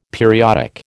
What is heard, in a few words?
"periodic", American